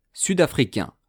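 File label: "sud-africain", French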